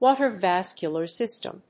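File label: "water vascular system", English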